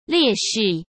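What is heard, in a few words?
"劣势", Mandarin Chinese; chinese-flashcards; hsk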